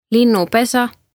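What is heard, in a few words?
"linnupesa", Estonian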